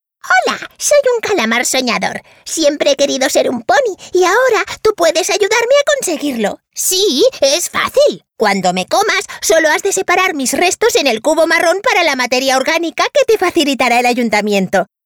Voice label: female spanish food cartoon comercial

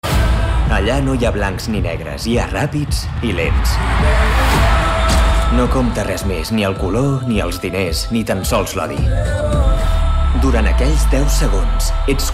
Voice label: male catalan movie comercial; ideasonora

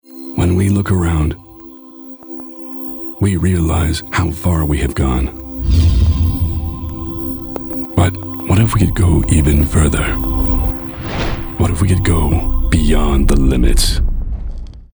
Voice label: male american english limits comercial; ideasonora

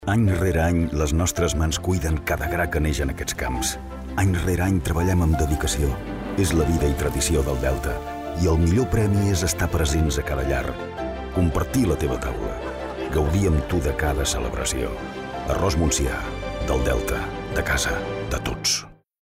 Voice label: male catalan rice comercial; ideasonora

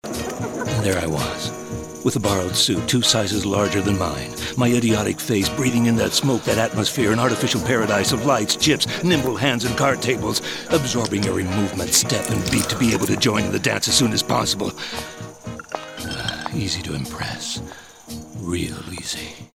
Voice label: male american english drink comercial; ideasonora

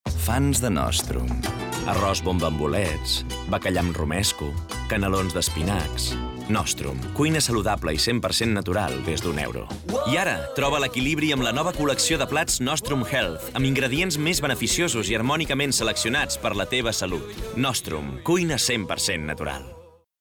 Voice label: male catalan restaurant comercial; ideasonora

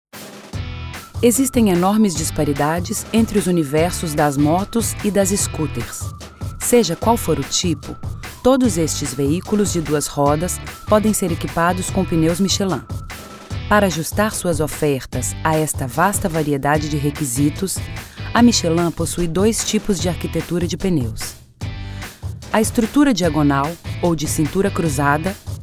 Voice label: female brazilian comercial; ideasonora

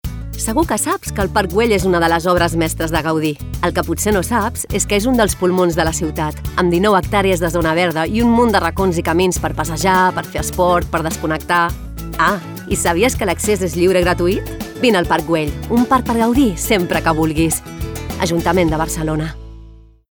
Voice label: female catalan park comercial

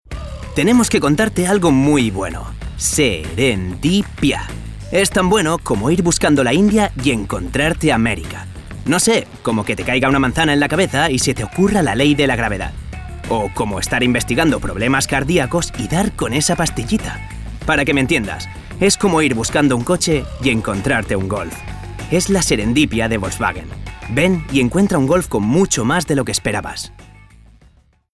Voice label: male spanish tv comercial; ideasonora

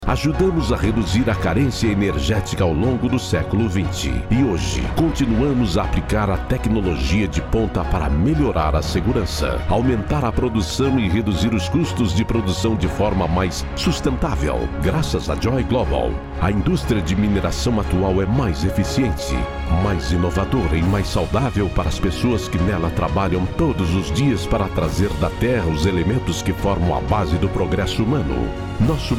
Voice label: male brazilian comercial; ideasonora

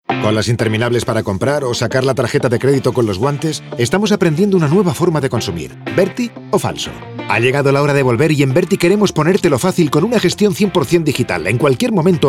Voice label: male spanish comercial; ideasonora

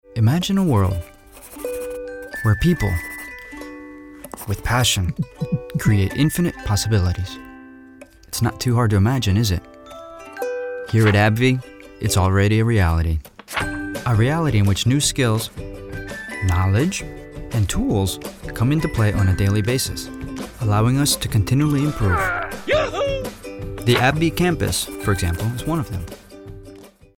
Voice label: male american english comercial; world; ideasonora

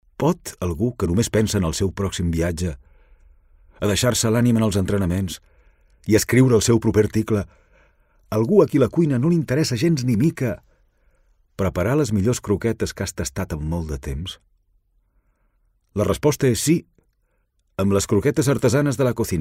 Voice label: male catalan food comercial; ideasonora

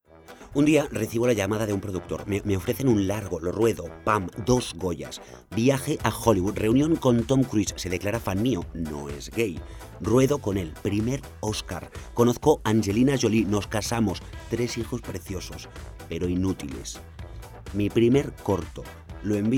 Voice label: male spanish festival comercial

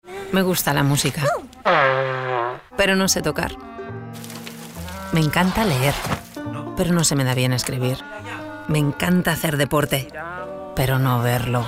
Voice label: female spanish food comercial; ideasonora

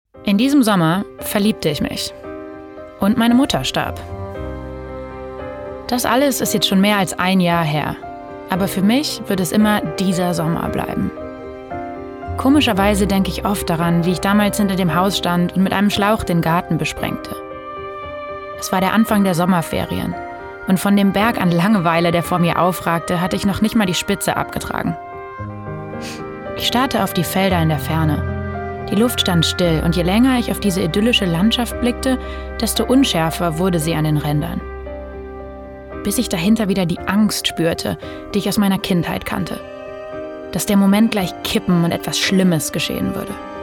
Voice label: female german comercial

